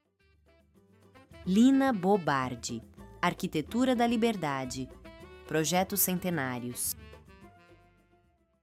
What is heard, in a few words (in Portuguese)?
narracao; degraus mastros